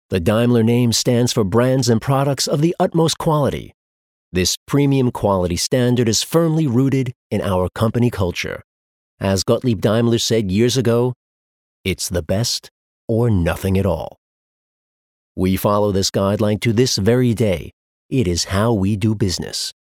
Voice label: elearning